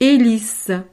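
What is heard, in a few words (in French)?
"helice", French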